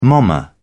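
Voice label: "momma", British English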